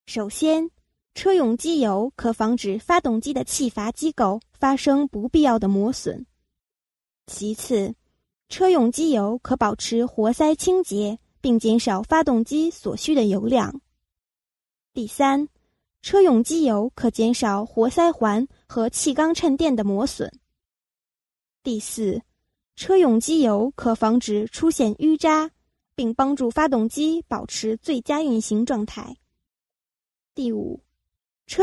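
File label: Chinese, female, various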